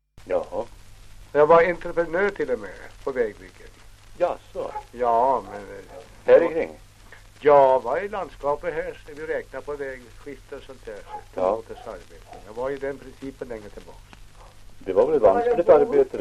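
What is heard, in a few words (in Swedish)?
Ovansjö Gästrikland, dialekter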